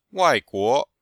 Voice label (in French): "wai4guo2", chinois; Cours de Chinois